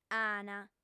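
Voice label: "ant", Sardinian, female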